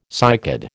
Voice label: "cycad", American